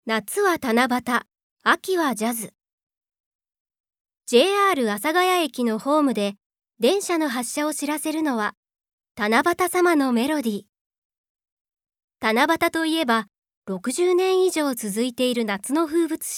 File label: narration